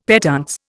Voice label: "petuntse", Indian; Dictionary

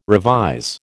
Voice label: "revise", American

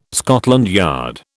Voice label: "Scotland Yard", British

